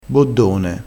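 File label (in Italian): "boddone", vocabolario dialettale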